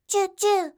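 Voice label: "chuuchuu", Japanese; doubutsu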